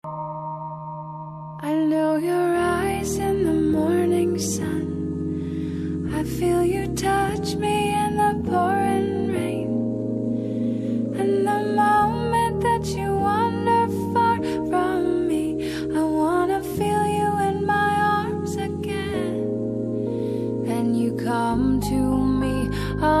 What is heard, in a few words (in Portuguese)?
amizade fem, Telemensagem Amizade – Voz Feminina